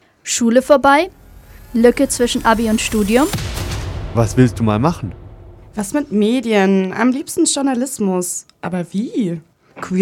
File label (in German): Jingle, echte Stimmen